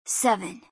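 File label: "seven", English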